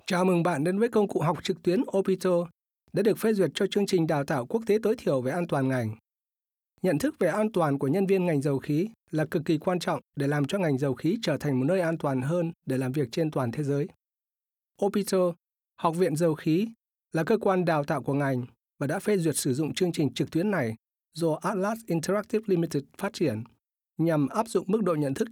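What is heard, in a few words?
Vietnamese General; GoLocalise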